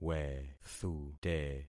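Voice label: "wethute", concatenated syllables; Affixing experiment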